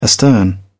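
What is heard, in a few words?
"astern", British English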